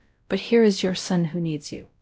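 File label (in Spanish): Voice Acting, es, female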